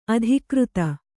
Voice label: "ಅಧಿಕೃತ", kannada

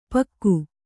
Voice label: "ಪಾಗು", Kannada

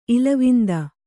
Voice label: "ಇಲವಿಂದ", kannada

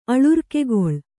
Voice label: "ಅಳುರ್ಕೆಗೊಳ್", Kannada

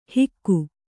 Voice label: "ಹಿಕ್ಕು", Kannada

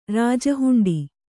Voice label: "ರಾಜಹುಂಡಿ", Kannada

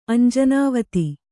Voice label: "ಅಂಜನಾವತಿ", kannada

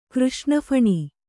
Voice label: "ಕೃಷ್ಣಫಣಿ", Kannada

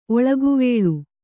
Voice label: "ಒಳಗುವೇಳು", Kannada

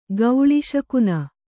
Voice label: "ಗೌಳಿಶಕುನ", kannada

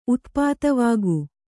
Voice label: "ಉತ್ಪಾತವಾಗು", Kannada

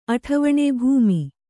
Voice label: "ಅಠವಣೆ", Kannada

